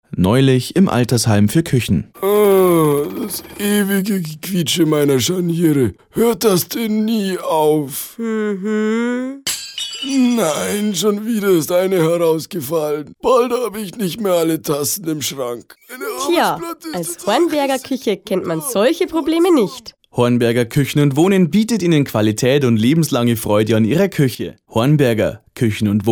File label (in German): Werbung; Hornberger